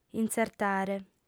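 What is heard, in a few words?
"intzertare", female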